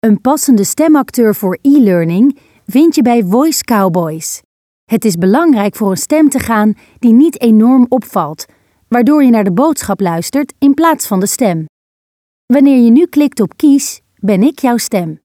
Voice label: ELEARNING

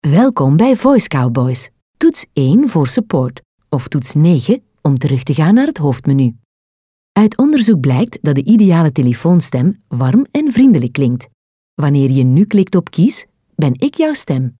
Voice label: VOICEMAIL

